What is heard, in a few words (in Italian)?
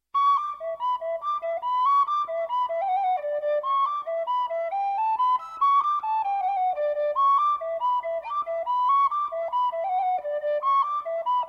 dialetto